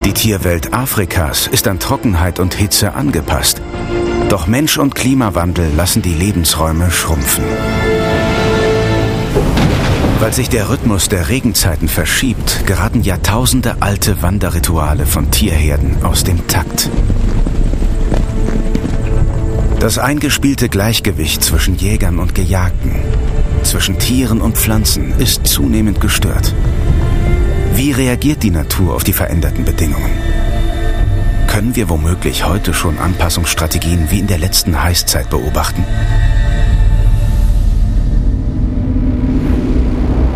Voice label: Dokumentation; ZDF; Die neue Heisszeit

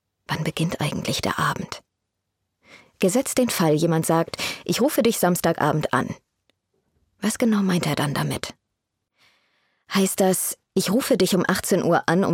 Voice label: Hoerbuch; LOFT VOICES

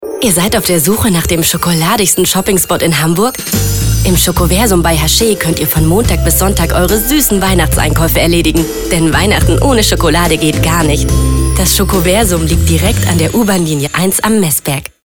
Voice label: Funkspot; Chocoversum

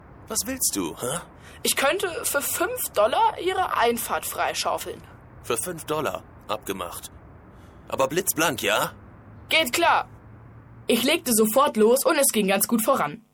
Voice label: hoerspiel; gregs tagebuch

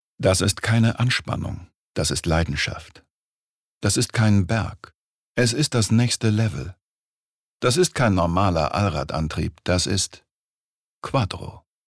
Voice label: Werbung

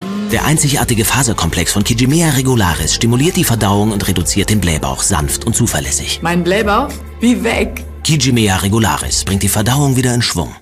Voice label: tv spot osterreich; kijimea regularis

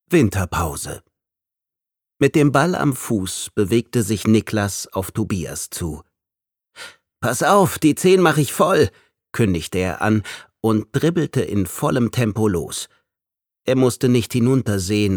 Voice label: hörbuch; fussballprofi

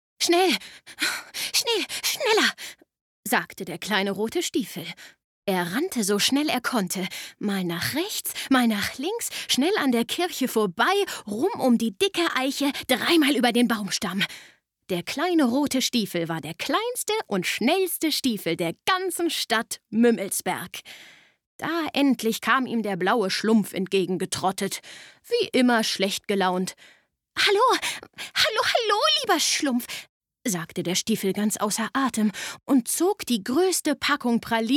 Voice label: Hörspiel; Der kleine rote Stiefel

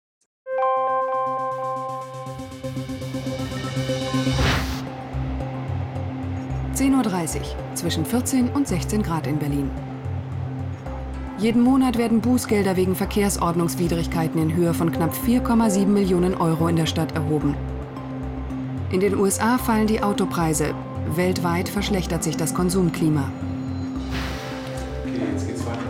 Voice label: Offstimme; 24hBerlin

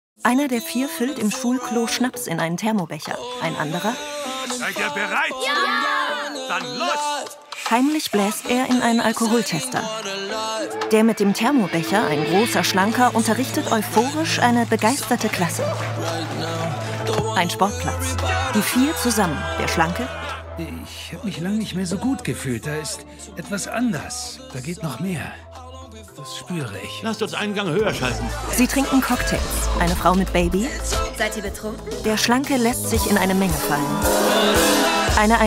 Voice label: Audiodeskription Trailer; der Rausch

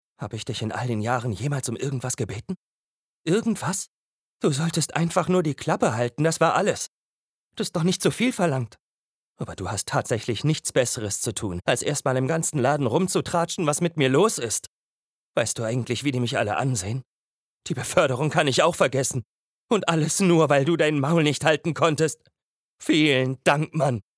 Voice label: enttaeuscht

